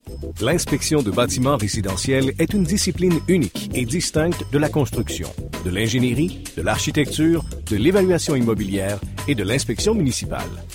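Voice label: Canadian French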